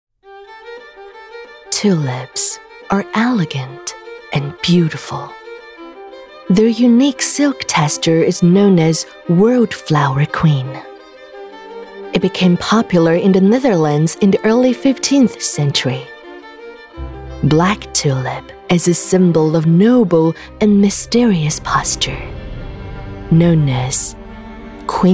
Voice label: 英产品宣传两种风格女王

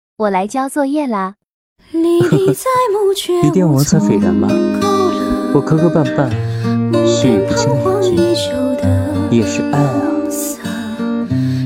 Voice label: 配音

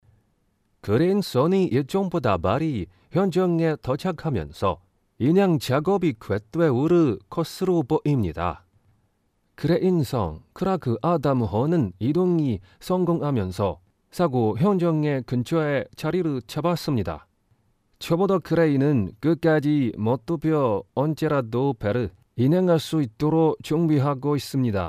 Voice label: 韩语样音

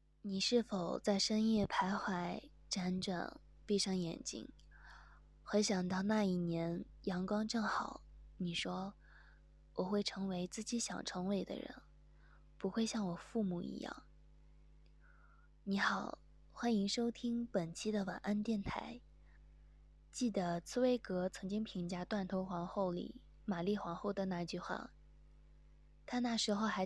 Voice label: 晚安电台

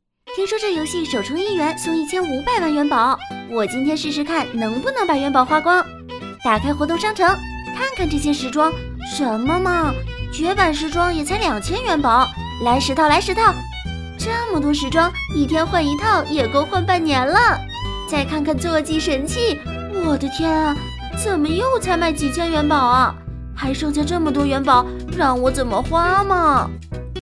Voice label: 游戏礼包解说

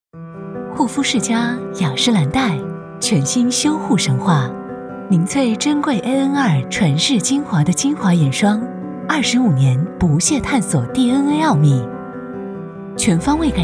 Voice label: 化妆品广告

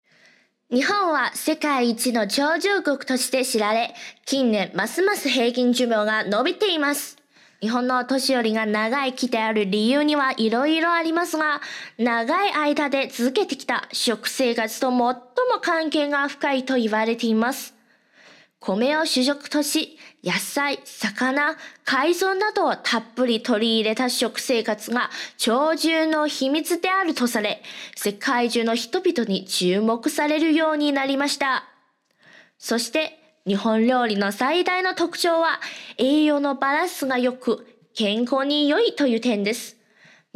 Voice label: 日语配音; 日语配音圈准备文件缩混

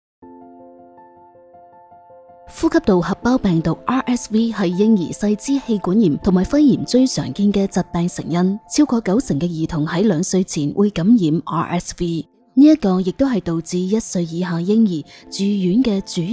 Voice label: 粤语女声广告宣传

